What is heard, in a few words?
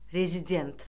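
"rezident", Azerbaijani; Orfoqrafiya Lüğəti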